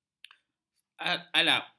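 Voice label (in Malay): "alap", Malay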